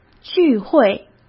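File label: "聚会", Mandarin Chinese; chinese flashcards; hsk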